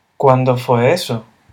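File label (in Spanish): "cuándo fue eso", male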